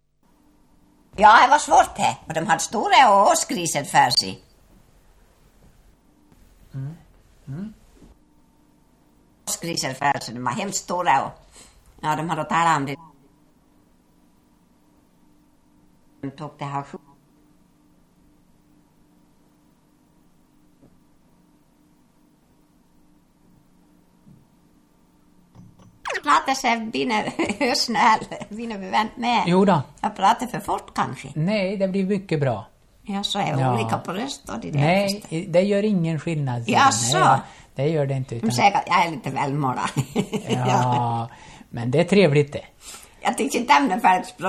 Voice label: Ål, dialekter; Svenska sagor; DAL; Folkminnen

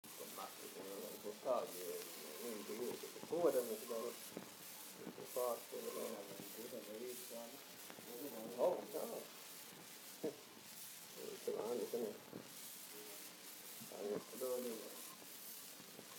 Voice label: dialekter; Folkminnen

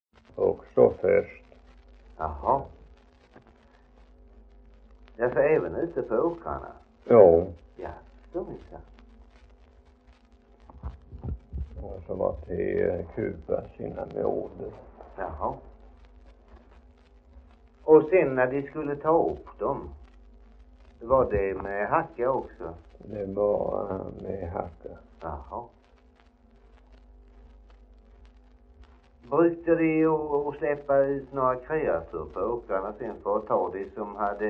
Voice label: Idala, dialekter; HALL

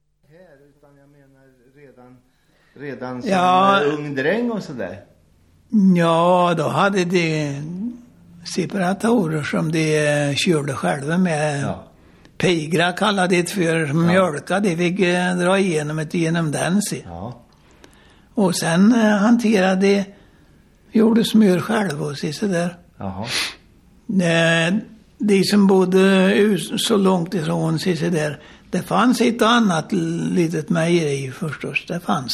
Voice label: Häradshammar, dialekter; Folkminnen

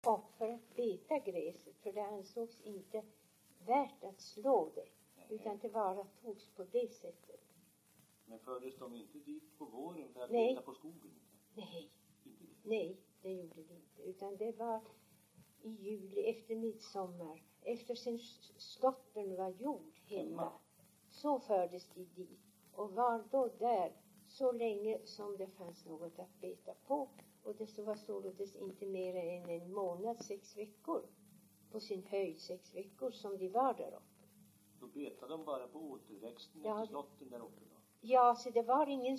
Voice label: dialekter; Folkminnen

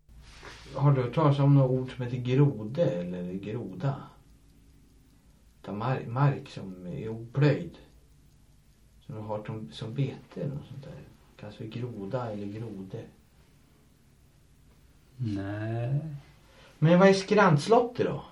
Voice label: Viby Hardemo, dialekter; Svenska sagor; Folkminnen

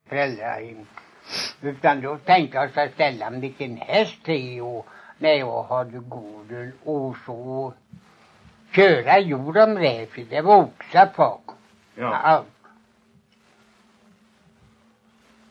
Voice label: Lommaryd, dialekter; Folkminnen

